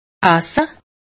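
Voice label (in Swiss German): "esse", Alsatian; medecine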